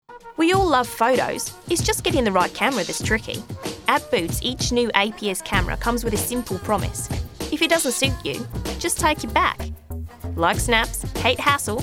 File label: Australian; Boots